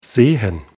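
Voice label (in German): "sehen", German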